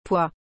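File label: "pois", French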